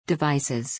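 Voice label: "devices", English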